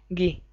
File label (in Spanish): "gui", es; klettres; tdeedu; syllab